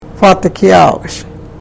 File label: karuk